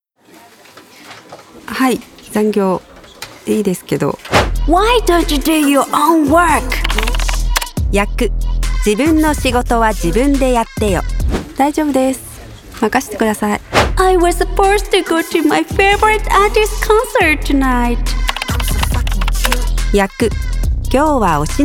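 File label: Commercial comical bilingual playful, Voice Over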